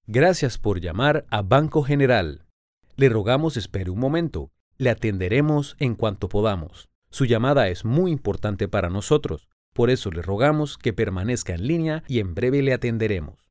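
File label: Spanish Latin America male voice over, narrative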